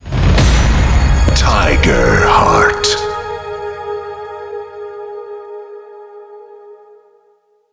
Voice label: announce; tiger heart